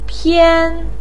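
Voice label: "pian", Mandarin pinyin, tone 1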